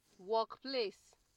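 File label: "workplace", Nigerian Pidgin, female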